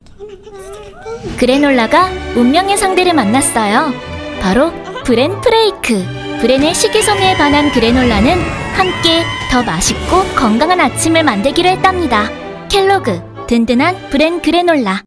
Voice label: 광고 편안한 차분한 성우

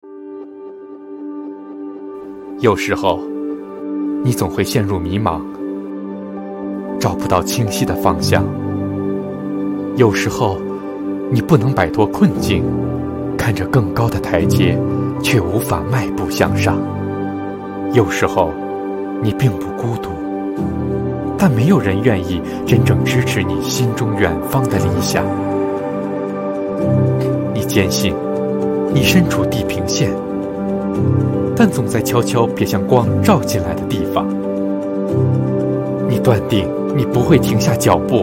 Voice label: 중국 남 기본 톤 홍보; 중경대학교

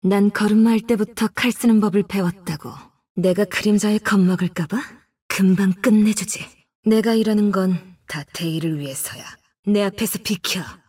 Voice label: GAME 중성적인 정적인 20대; 리그오브레전드; 아칼리

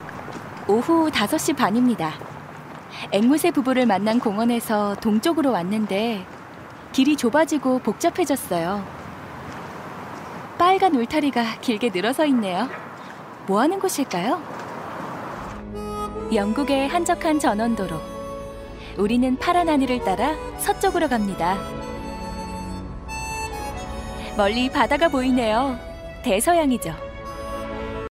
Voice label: 자연스러운 차분한 20대 1인칭 여행다큐

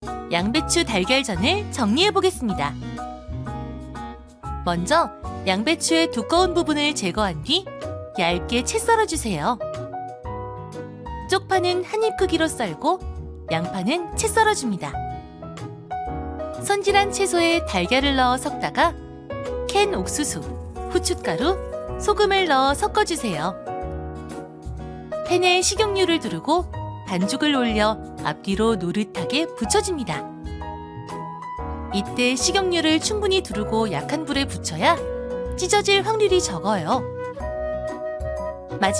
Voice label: 내레이션 명랑하고 밝은 요리 프로그램 내레이션; EBS-최고의-요리비결

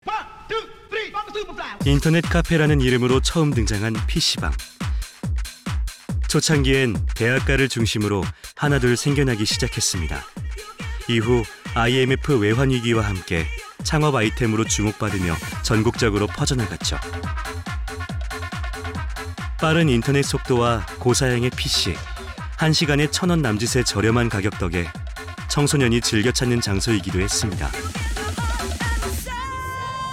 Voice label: 나레이션

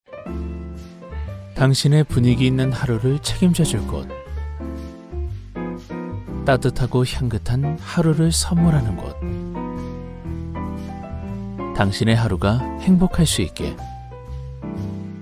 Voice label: 홍보; KBS; 카페

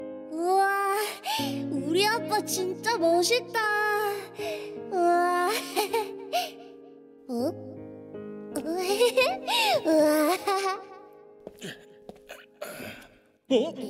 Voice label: 6세 남아 순수한 귀여운

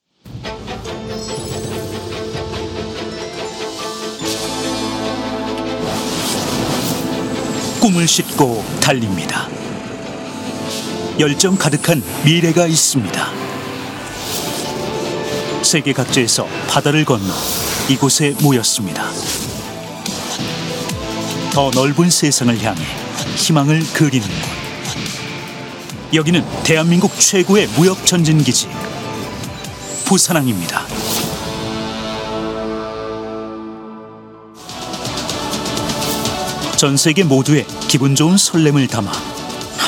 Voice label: 강한 웅장한 홍보 내레이션; 부산항

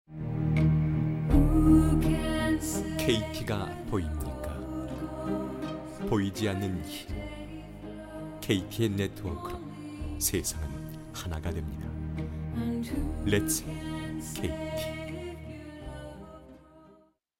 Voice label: 광고cm 성우

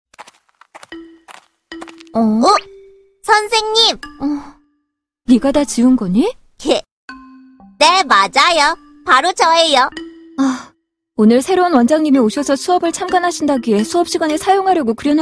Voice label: 20대 친절한; 기린선생님; 베이비버스

